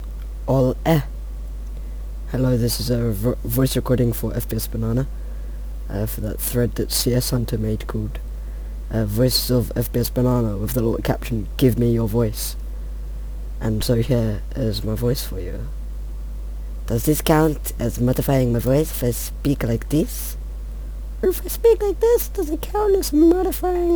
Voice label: my voice, non rev